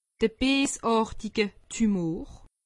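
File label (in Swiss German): "beesartige tumor", Alsatian; medecine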